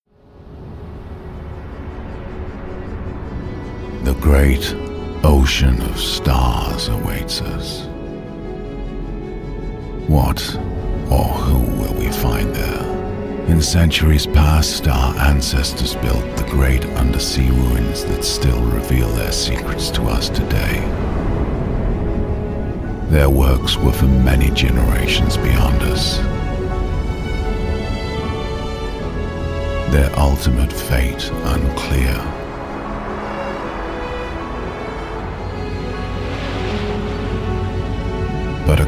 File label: English Voice Over theatrical Epic